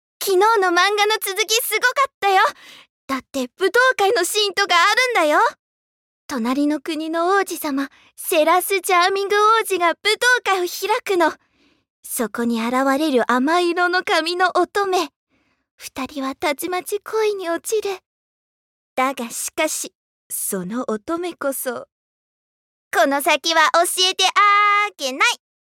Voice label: Joshi Koukou

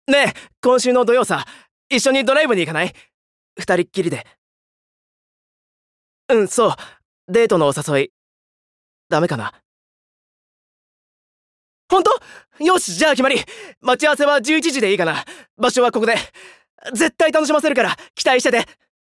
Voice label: Saenai Danshi

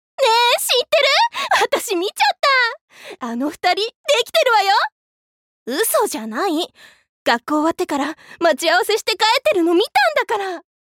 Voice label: Akarui Tomodachi

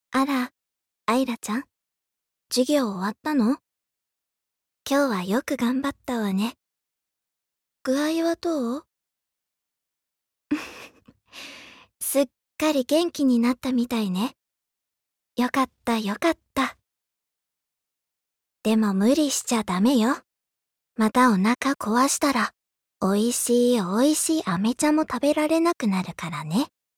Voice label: Yasashii Sensei

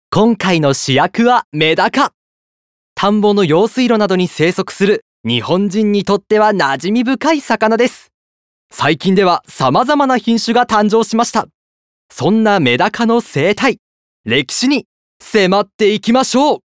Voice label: Narration Kyoiku

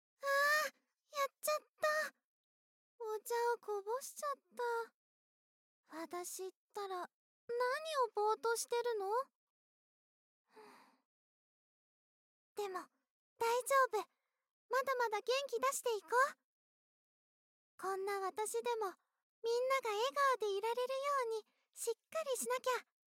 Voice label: Kawaii Doji Ko

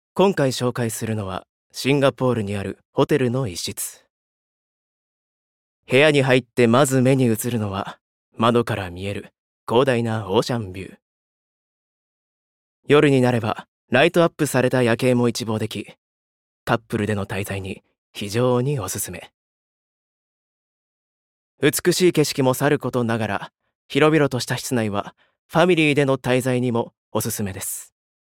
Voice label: Narration Tabibangumi

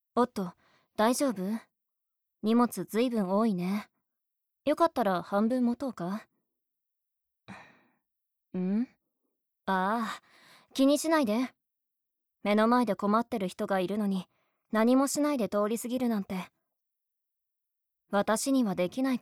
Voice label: クール系イケメン; Ikemen

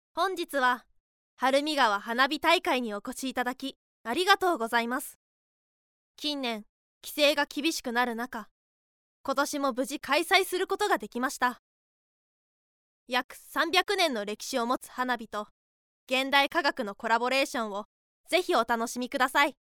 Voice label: Narration Jonai Announce; ナレーション③場内アナウンス; bloomz-inc

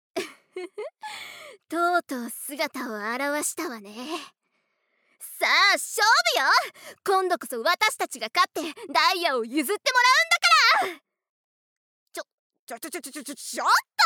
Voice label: 不憫な悪役

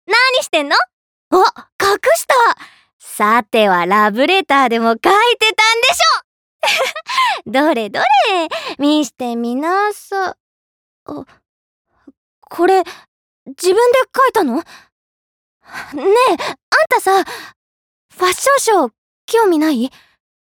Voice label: Akarui Onnanoko

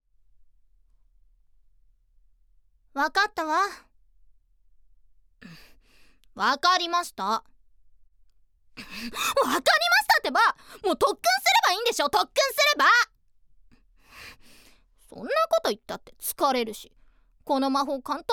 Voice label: Tsuyokina Ojosama

